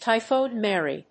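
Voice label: "Typhoid Mary", English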